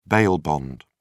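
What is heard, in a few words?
"bail bond", British English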